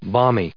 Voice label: "balmy", en us

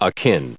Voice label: "akin", us

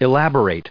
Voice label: "elaborated", us

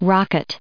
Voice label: "rocket", us

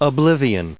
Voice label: "oblivion", en us

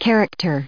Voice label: "character", us

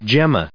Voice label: "gemma", us

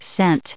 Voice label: "cent", us

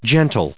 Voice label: "gentle", en us

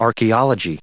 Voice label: "archaeologist", us

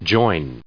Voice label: "joined", en us

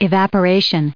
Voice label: "evaporation", us

